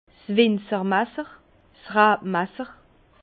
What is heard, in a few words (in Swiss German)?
"winzermasser", Bas Rhin; vin; serpe